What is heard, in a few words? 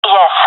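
affirmation, female; vo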